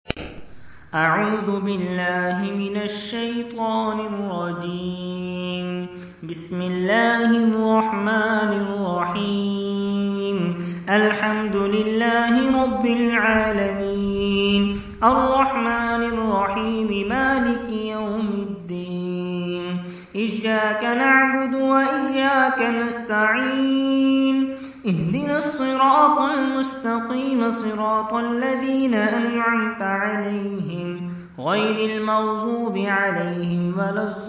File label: voice, recitation